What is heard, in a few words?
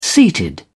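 "seated", British English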